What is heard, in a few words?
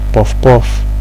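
"puff puff", Nigerian Pidgin, male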